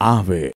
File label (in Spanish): "ave", es